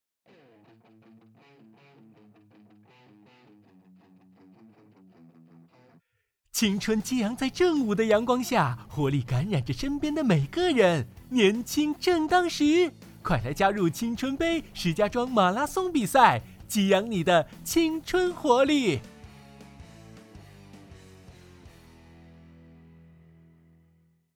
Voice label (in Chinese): 激情活力; 激情 活力 广告宣传